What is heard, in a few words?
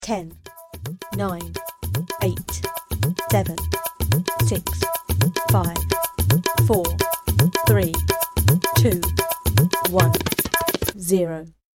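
bollywood countdown female voice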